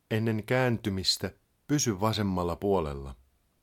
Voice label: Ah Keep Left, Finnish, male; Opas

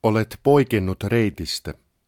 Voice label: Route Deviated, Finnish, male; Opas

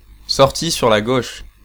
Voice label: Ah Exit Left, French, male

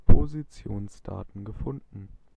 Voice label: Gps Found, German male

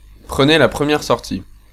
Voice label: French male

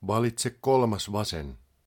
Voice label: 3rd Left, Finnish, male; Opas

